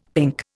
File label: "pink", Indian; Dictionary